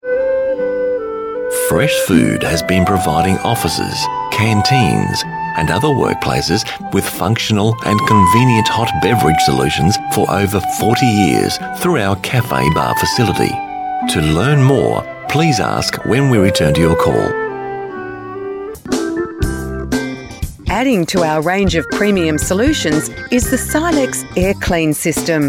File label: distribution wholesale messages on hold